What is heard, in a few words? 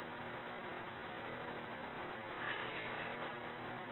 i hear people slowed down